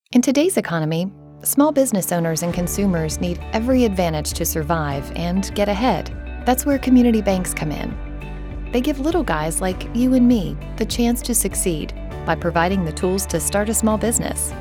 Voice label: radio ad; icba; north dakota